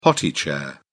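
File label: "potty chair", British English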